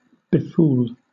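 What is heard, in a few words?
"befool", British English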